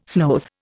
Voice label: "sloth", Indian